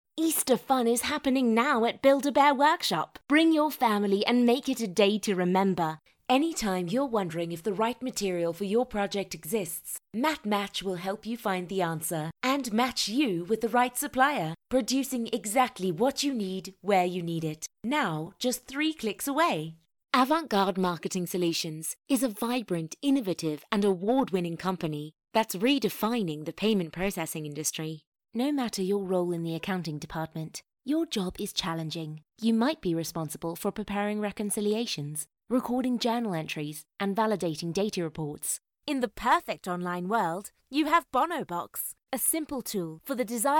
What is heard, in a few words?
British Young Adult